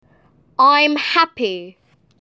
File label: "I am happy"